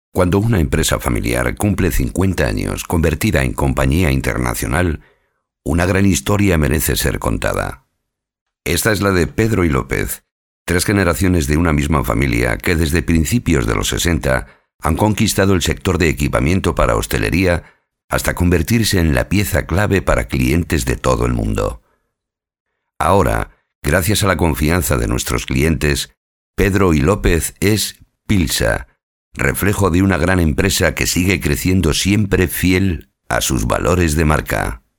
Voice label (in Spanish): Masculino; Sonoarte